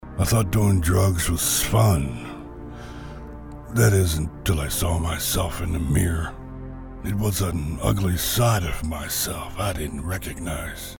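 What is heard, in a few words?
US English, male, character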